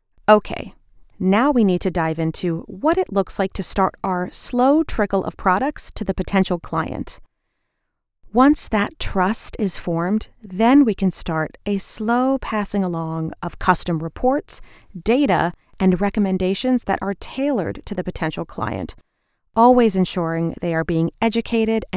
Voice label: Corp Narration Sales